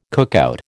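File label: "cookout", American English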